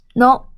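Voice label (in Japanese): "の", Japanese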